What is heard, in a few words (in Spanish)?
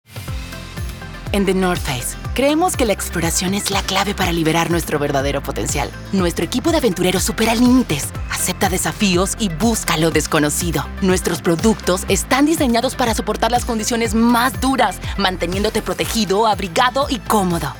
epico esperanzador